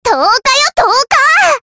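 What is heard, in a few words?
character voice Change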